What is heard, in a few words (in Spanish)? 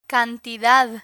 "cantidad", Spanish